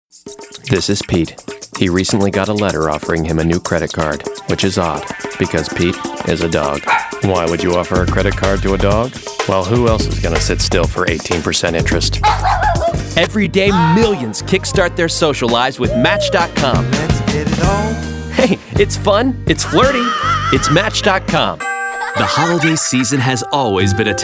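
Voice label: Commercial, male voice talent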